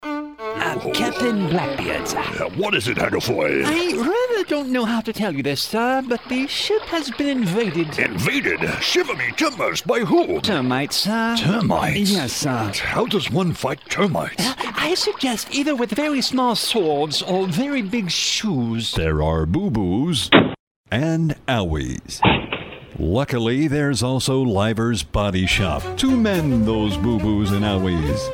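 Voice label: Japanese Character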